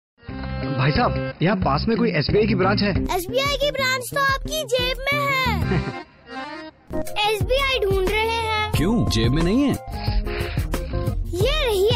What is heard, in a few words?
Hindi Girl age10